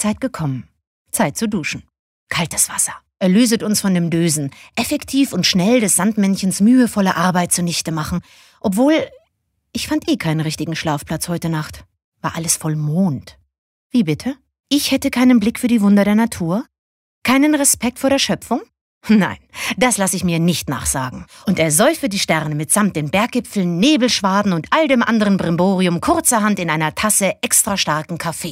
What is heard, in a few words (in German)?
Schauspiel Variabel